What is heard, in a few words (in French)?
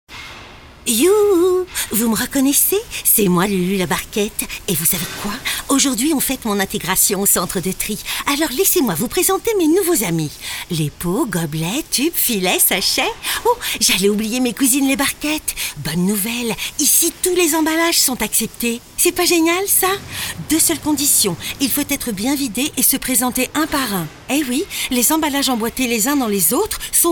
spot; barquette de fraises